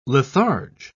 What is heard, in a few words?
"litharge", pronounce